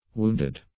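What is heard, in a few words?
"wounded", English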